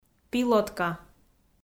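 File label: "пилотка", Komi, female; audio dict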